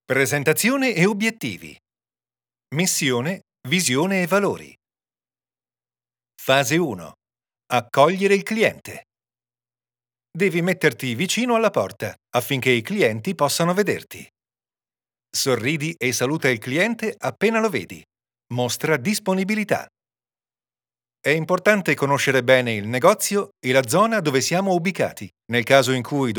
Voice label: ELEARNING